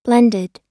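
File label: "blended", US English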